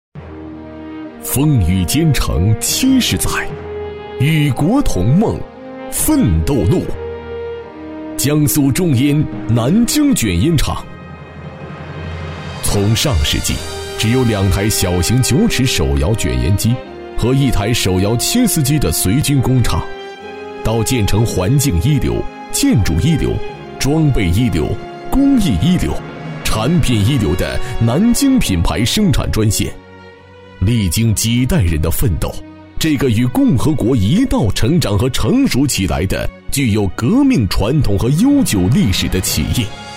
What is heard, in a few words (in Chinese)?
男国 宣传片 企业 激情; 江苏中烟